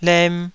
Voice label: "lem2", Taiwanese syllable